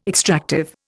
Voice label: "extractive", Indian